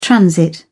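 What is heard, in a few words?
"transit", British English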